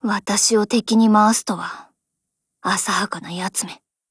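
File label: skill Japanese; NTW20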